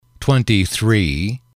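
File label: twenty three, English, male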